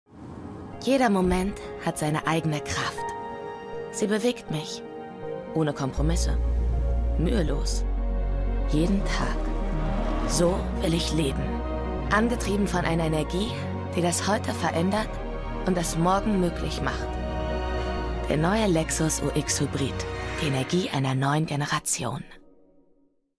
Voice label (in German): Commercial (Werbung), German, Hessisch, French